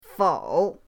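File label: "fou", Mandarin pinyin, third tone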